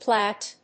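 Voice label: "Platte", English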